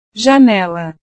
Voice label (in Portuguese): "janela", portugues